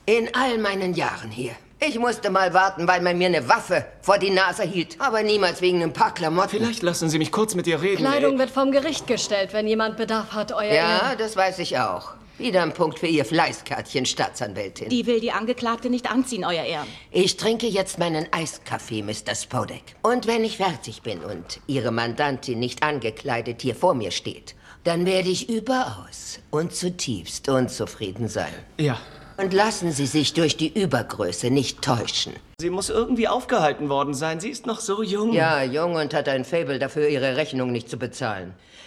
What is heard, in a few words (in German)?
Synchron, frauen; Inventing Anna